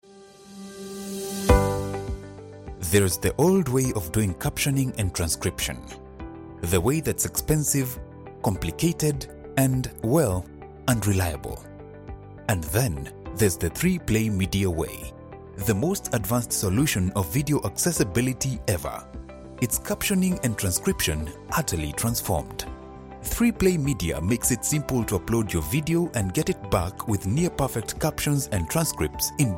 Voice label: english african commercial; Kenia; Suaheli Englisch (afrikanisch)

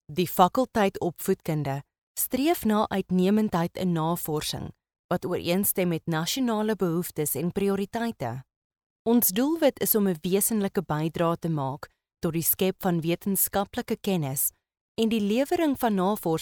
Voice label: training e-learning

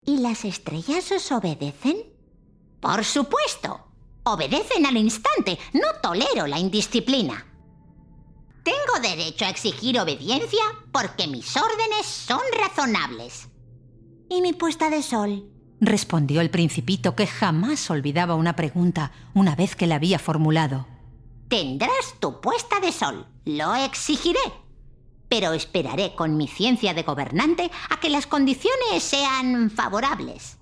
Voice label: spanish video games

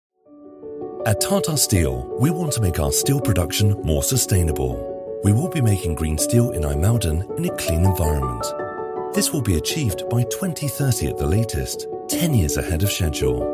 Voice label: English british corporate videos

